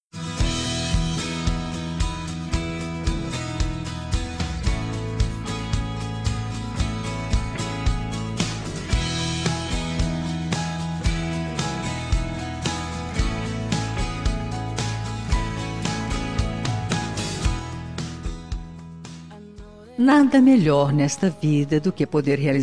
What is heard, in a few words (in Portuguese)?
BOA PROVA FEM; FELICITAÇOES